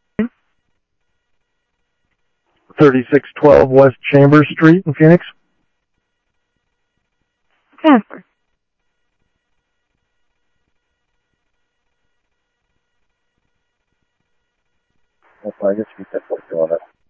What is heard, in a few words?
Sheriff Central, scanner audio trunk